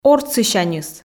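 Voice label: "ортсысяньыс", Komi, female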